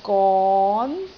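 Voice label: "gon2", Cantonese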